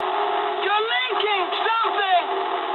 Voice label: eng tank damaged mood high, speech eng; War Thunder